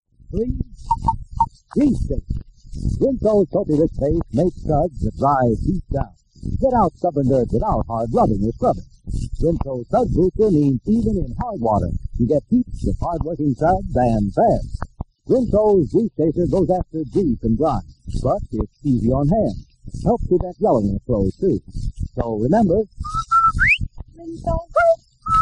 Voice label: Radio Commercials